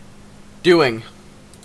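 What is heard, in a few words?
"doing", Canadian English; pronunciations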